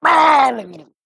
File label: Male Murloc Death